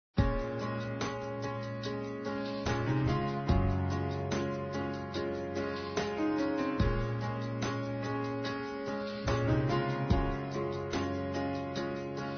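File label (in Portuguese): Masculino, Telemensagens Aniversários; MADRINHA(PADRINHO); Telemensagens 2017; Aniv Familiar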